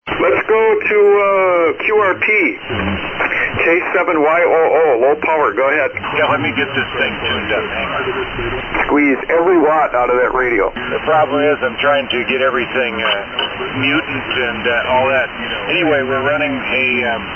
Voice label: Rohde Schwarz Kurzwellenempfanger & Eldeco SSB 100; Winona, WI.